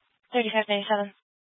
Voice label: Police Dispatch; Police 1 Dispatch

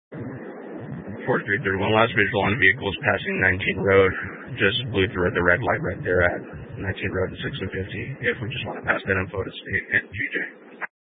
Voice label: Sheriff Dispatch, scanner; Mesa County

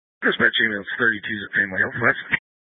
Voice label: Fire Department Dispatch; Grand Junction

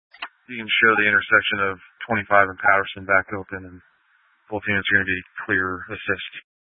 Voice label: Police Dispatch, scanner; Police 1 Dispatch

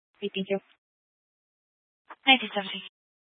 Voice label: Police Dispatch

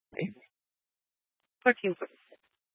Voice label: Police Department Dispatch, scanner

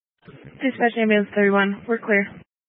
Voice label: Fire Department Dispatch; Grand Junction

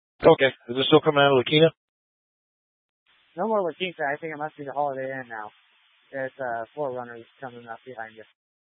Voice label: Tactical Incidents, scanner

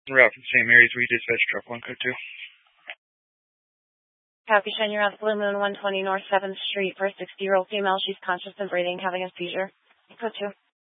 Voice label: Fire Dispatch, scanner

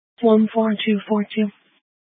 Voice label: Incident Tac 3, Scanner audio recording

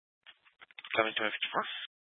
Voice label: Police Dispatch; Police 1 Dispatch

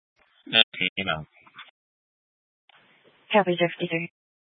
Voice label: Police Dispatch; Police 1 Dispatch

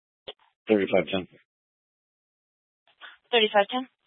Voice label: Police 1 Dispatch

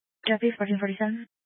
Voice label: Tactical Incidents, scanner; Grand Junction Tactical 1

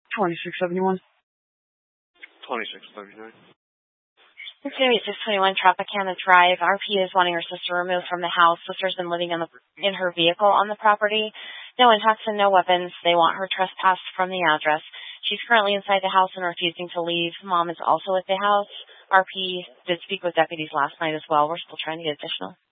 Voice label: Mesa County Sheriff Dispatch

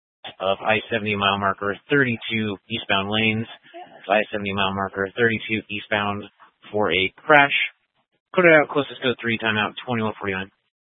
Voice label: Fire Dispatch, scanner

